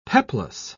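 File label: "peplos", British English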